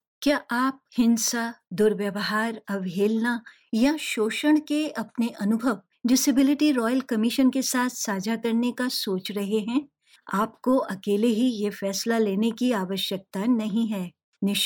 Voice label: hindi; dss disability